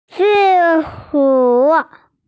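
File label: "zi shu", Mandarin Chinese, female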